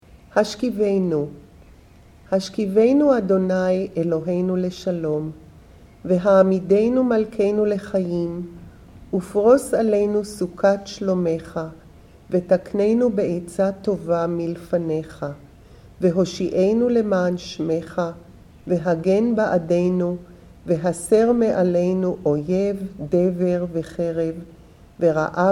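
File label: Hashkiveinu, female; Shabbat Evening Readings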